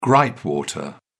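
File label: "gripe water", British English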